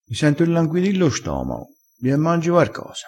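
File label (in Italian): "illanguidi", crespinese dialettale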